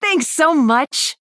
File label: Female Blood Elf Thanks